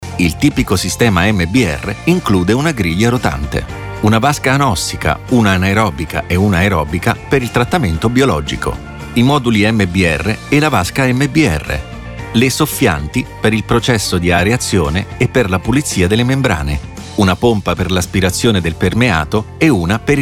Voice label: Italian